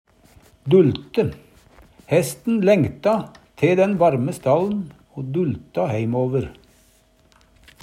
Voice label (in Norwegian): "dulte", Numedalsmål